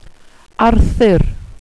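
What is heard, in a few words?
"arthur", welsh pronunciations; Wales/welsh names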